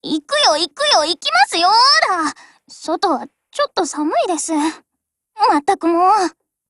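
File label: operation begin, voice, Japanese; GF Alarm; C96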